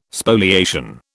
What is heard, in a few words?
"Spoliation", British